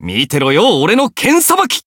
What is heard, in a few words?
Voice variable chant, jp